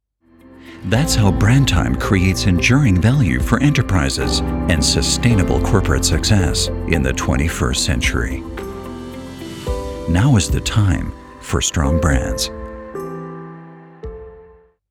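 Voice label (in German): Werbung, US English, Sanft; BrandTime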